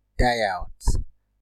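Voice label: "die out", Nigerian Pidgin, male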